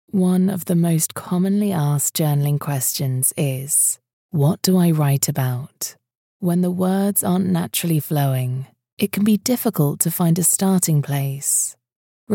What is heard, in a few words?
elearning